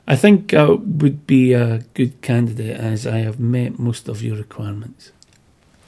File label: interviewee male filler